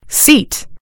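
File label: "seat", British English; gcompris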